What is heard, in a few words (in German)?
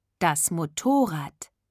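"das Motorrad", German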